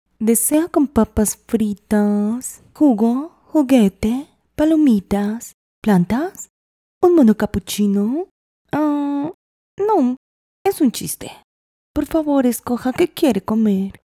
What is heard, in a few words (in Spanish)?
Voz Chica mostrador; personajes chica carton